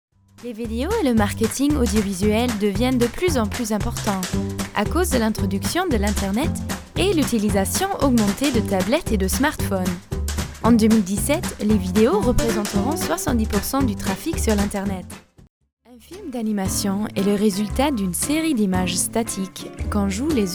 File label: French